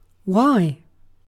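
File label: "why", British English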